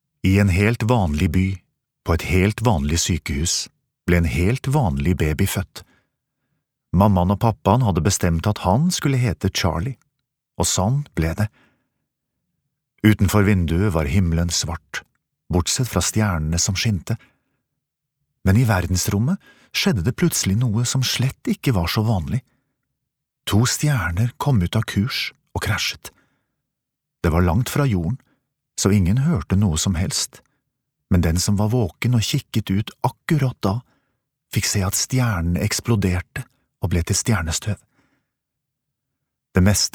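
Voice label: no audiobook children, Norwegian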